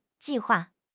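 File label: "ji4 hua4", Chinese; 汉语口语新时代-初级下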